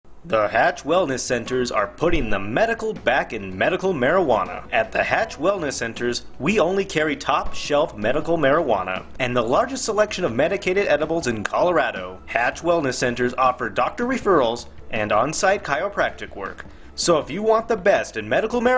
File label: Dispensary Commercial; Hatch Wellness Centers